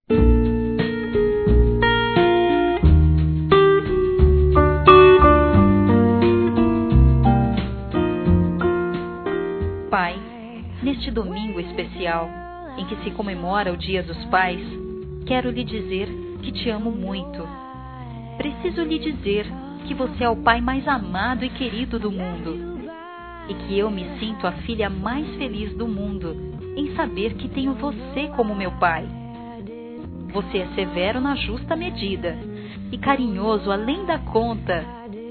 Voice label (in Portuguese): EU TE AMO, fem; Telemensagens 2017